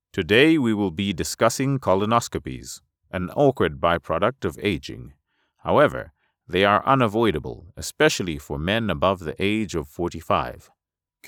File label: corporate